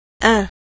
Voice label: "un", French